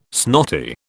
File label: "snotty", British